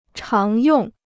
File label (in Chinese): "常用", Chinese